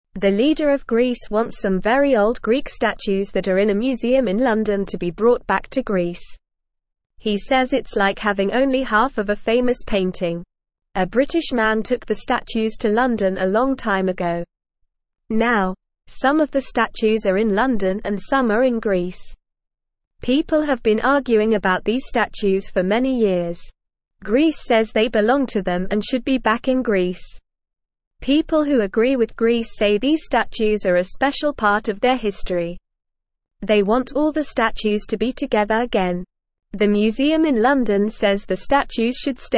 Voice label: English Newsroom Lower Intermediate NORMAL Reading; Greece wants old statues back from London museum